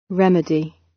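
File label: "remedy", British English; Time, history; Thời gian, lịch sử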